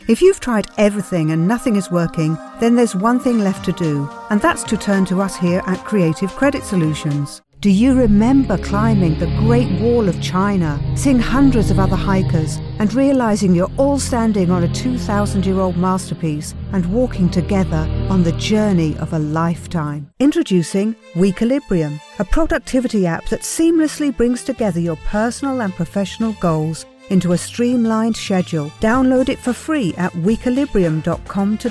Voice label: Mixed Genre With Music, British, Female Voice Over; Audiobooks; Television Spots; Evolution Of Modern Medicine